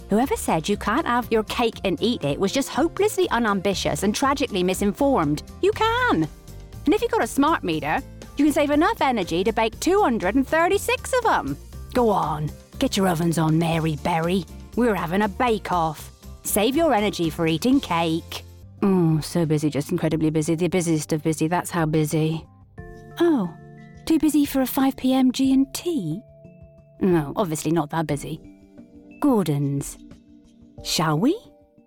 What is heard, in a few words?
Commercials